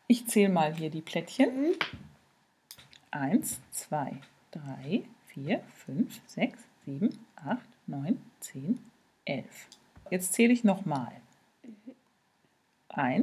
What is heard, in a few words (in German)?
Zählfehler laut